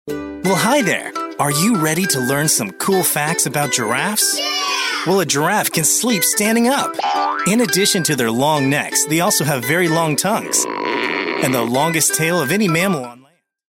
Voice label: kids

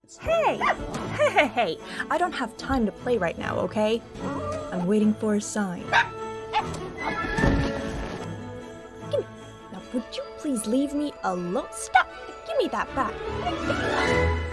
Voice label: character

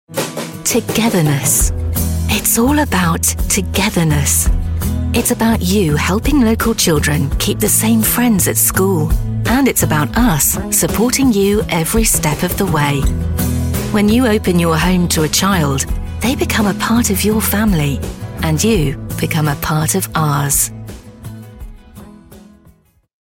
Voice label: commercials warm motherly

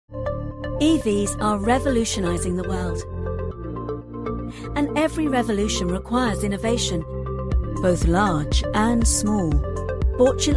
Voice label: explainer

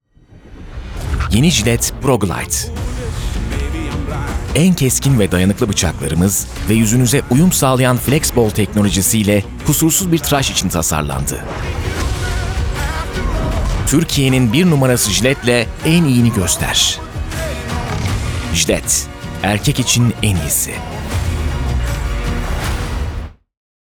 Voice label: gilette, Turkish, Female

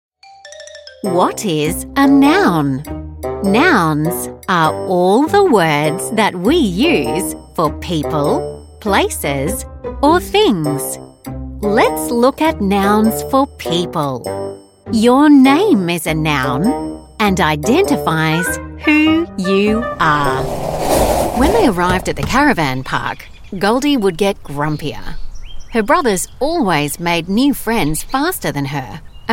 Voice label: elearning 6 9

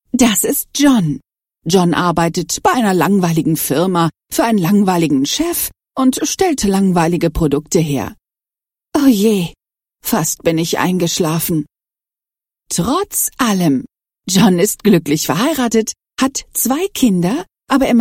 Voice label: animation

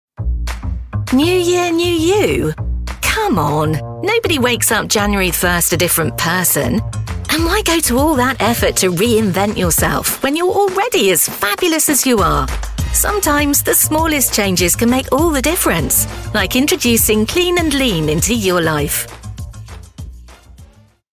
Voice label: commercials bright bubbly

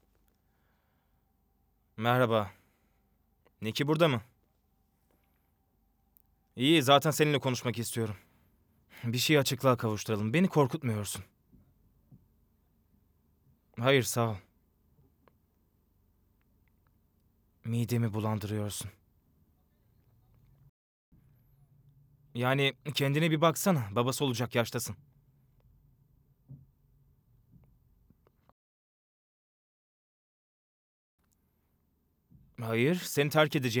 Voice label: young adult

